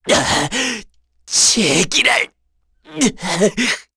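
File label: Vox Dead, Korean; Ezekiel; kingsraid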